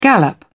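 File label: "gallop", British English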